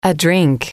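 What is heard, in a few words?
a Drink, english, female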